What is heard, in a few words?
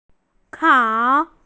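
"kao3", Mandarin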